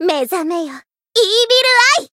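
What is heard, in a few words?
Voice limitbreak, Japanese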